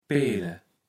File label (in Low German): "peele", kaevels platt